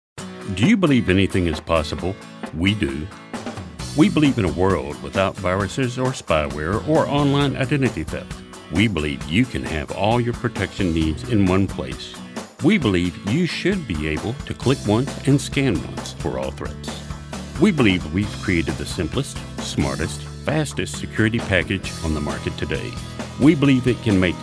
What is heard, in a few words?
English, english males